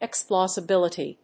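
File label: "explosibility", English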